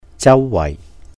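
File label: "jāu wàih", Cantonese; around; vicinity; 周圍; holidays and festivals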